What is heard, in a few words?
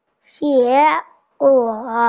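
"result", hearing impaired; jie2guo3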